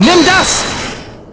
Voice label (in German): "Nimm Das", German; Apollo